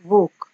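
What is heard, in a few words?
"vok", Nigerian Pidgin, female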